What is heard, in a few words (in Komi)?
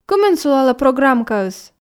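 кымын сулалӧ программкаыс, Komi, female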